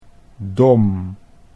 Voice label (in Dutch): "dom", Dutch